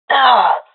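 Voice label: death, professional female; vo; Counter Strike Model Pack; CS:GO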